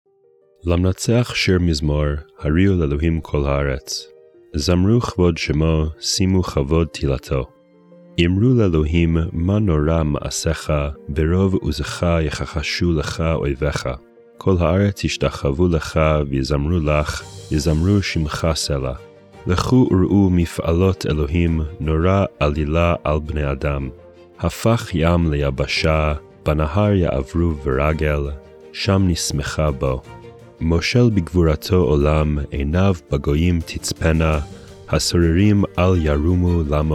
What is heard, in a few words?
poetry and prayer psalm hebrew